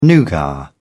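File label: "nougat", British English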